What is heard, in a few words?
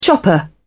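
"chopper", British English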